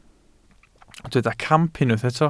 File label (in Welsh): "camp", Welsh